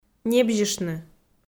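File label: "небзьыштны", Komi-Zyrian, female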